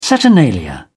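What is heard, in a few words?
"saturnalia", English, British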